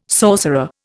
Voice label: "sorcerer", Indian

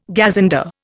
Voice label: "gazunder", Indian

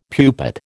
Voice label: "pupate", American

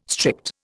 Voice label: "strict", Indian

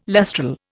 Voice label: "lustral", Indian

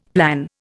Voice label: "plan", Indian; Dictionary

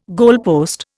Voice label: "goalpost", Indian

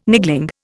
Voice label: "niggling", Indian

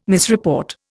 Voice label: "misreport", Indian

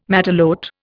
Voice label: "matelote", Indian

